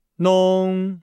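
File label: "nong", Chinese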